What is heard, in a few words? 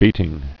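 "beating", spoken word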